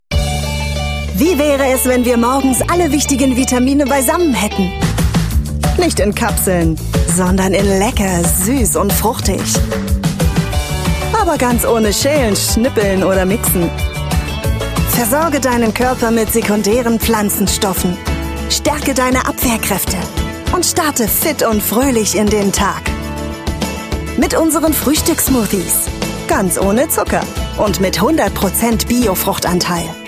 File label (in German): Werbung Smoothie